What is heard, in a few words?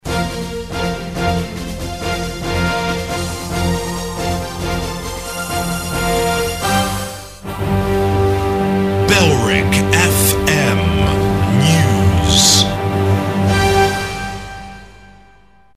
news short (pompous bed); bailrigg fm; bfm jingles ads RSL 1994